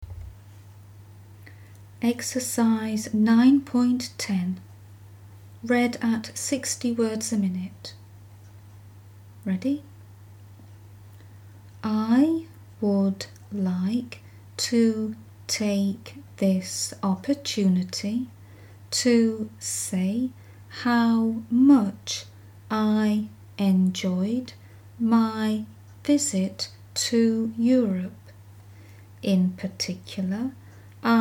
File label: 60wpm; Teeline